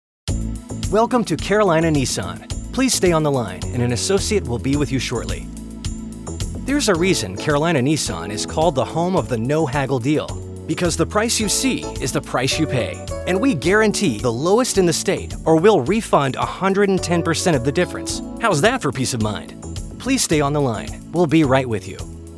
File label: On Hold Main